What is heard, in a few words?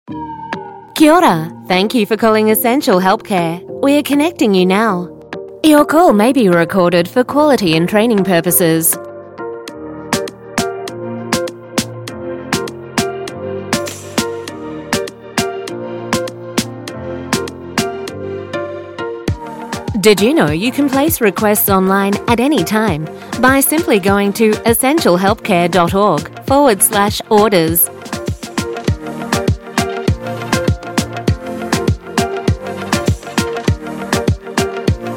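on hold message Australia; english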